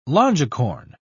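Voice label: "longicorn", British English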